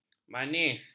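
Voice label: "manis", Malay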